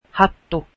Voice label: finnish hat